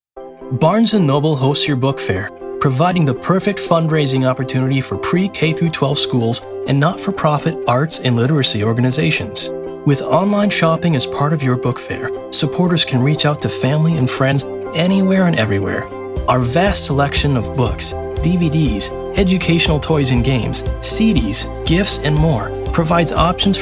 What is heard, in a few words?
Corporate Narration